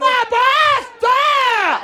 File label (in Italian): ma basta in vibrato; bruno arena